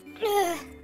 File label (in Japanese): のびのびボイス; ドンキーコング６４